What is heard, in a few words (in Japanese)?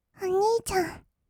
"おにいちゃん", kawaii voice; ASMR; 二人称; 1200 kawaii voice!!